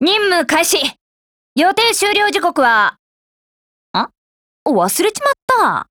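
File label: Go attack, Japanese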